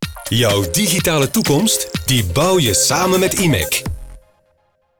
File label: male; studio sonart